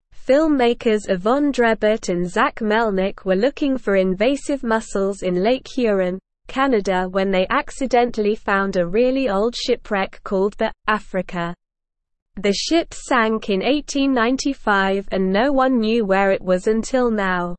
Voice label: Upper Intermediate SLOW Reading, English; English Newsroom